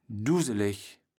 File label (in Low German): "dusseleg", kaevels platt